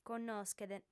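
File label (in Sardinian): "connoschet", female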